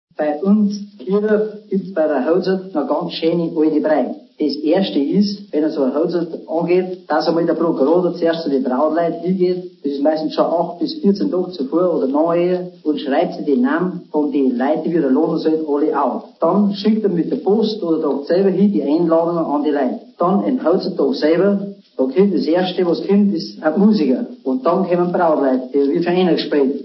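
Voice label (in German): Mittelbairisch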